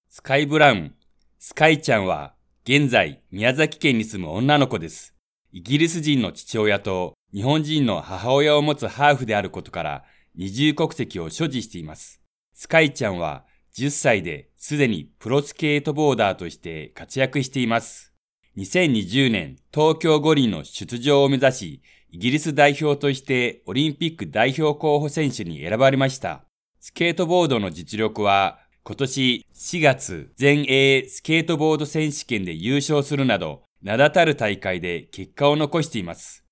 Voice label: 日语男 人物介绍

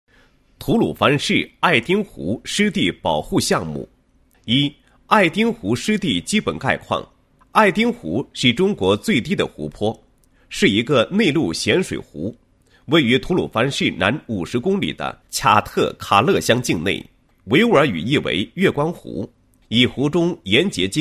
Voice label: 男 项目介绍; 吐鲁番市艾丁湖湿地介绍保护项目

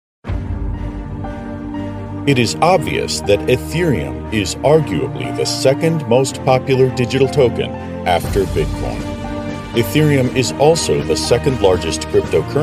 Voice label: 大气解说

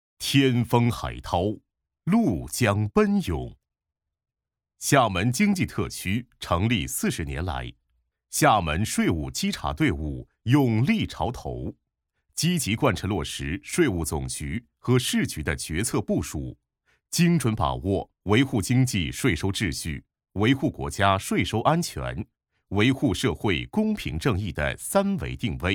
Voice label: 男 专题 税务局; 厦门市税务局

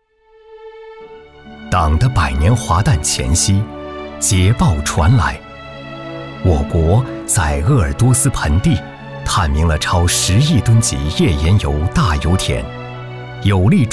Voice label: 男 人物讲述

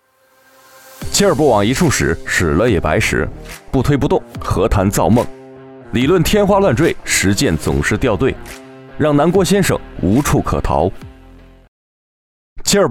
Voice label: 男 酷炫广告; 价值

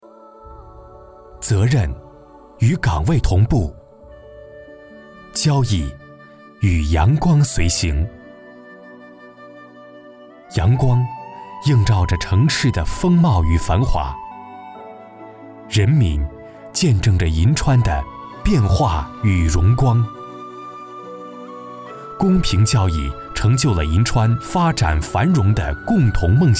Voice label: 英男 中文宣传片; 银川宣传片开头

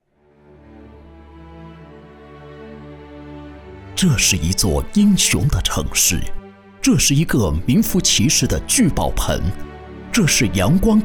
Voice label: 男 军队 大气深情

